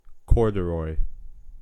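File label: "corduroy", American English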